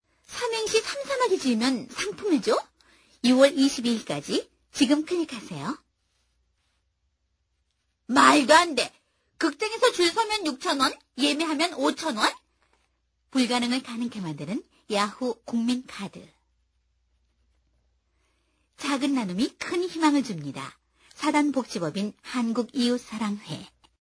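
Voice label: Korean female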